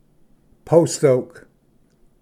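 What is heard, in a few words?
"post oak", English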